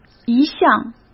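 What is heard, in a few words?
"一向", Mandarin Chinese; hsk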